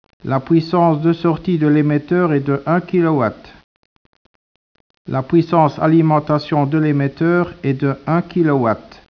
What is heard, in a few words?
speech, French